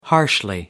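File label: "harshly", British English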